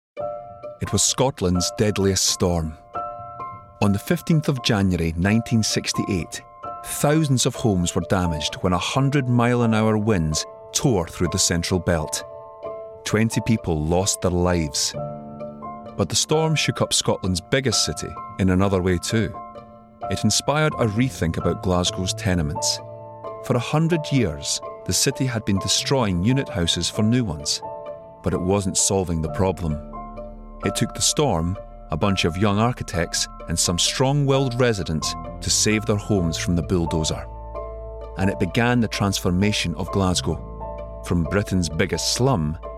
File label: Documentary Extract Storms